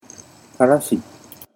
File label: "karashi", Japanese